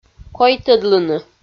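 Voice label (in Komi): "койтӧдлыны", Komi, female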